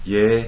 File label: "Yes", es, Adult, Male; ko